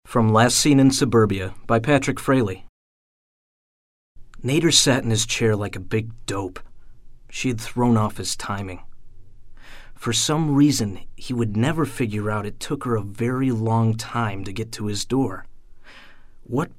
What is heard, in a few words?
Men Audio Book